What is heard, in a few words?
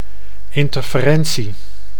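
"interferentie", Dutch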